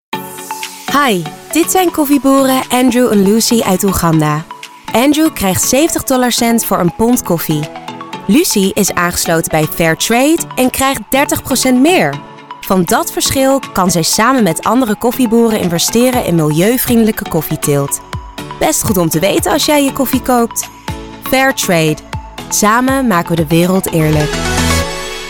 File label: NL Music; Fair Trade